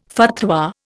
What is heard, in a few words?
"fatwa", Indian